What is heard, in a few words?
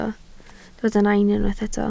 "nain", Welsh